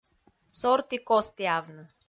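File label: "сорті-костіавны", Komi, female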